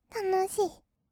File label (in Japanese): "たのしー", kawaii voice; 1200 kawaii voice!!; 色々なワンショット